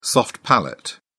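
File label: "soft palate", British English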